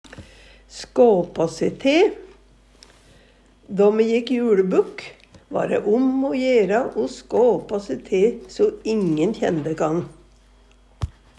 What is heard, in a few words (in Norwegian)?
"skåpå se te", Numedalsmål; Då me jekk juLebukk, var'e om o jera o skåpå se te so ingen kjende kan.